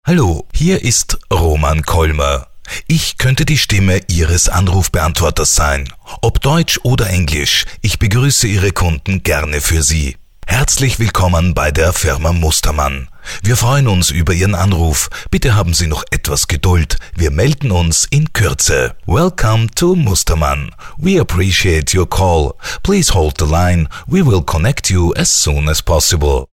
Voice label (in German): Sprecher Telefonansagen